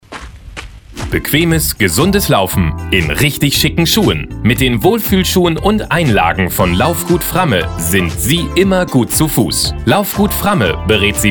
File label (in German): radiospot; loeningen schuhgeschaeft